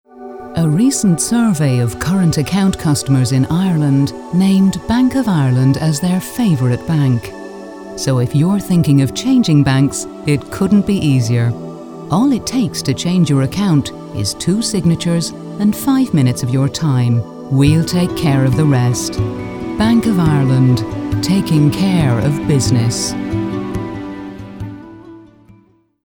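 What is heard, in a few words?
English, General, Irish; GoLocalise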